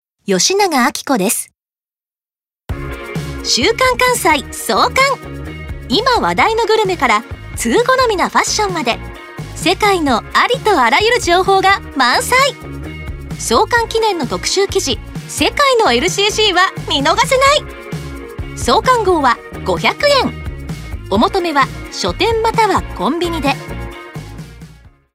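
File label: commercial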